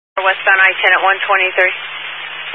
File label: Highway Patrol, Phoenix Metro West Area, scanner